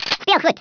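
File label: "collect", Afrikaans accent; Speech; International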